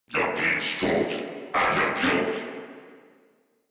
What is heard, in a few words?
Patrol, Battle Barks